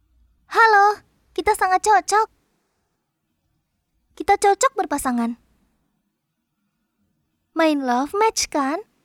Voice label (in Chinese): 印尼语 女声 角色